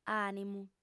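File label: "ànimu", lingua sarda, female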